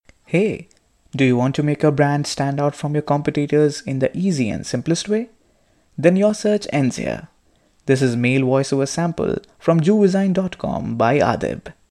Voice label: Real English Male Voiceover